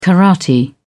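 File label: "karate", British English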